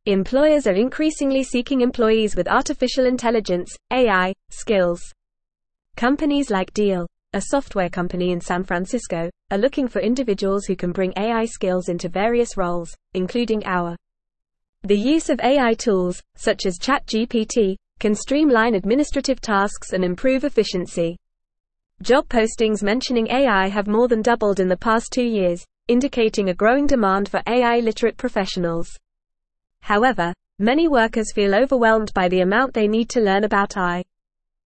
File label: Advanced FAST Reading, English; English Newsroom; Employers Seek AI Skills as Job Posts Double